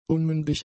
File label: "unmündig", German; adjectives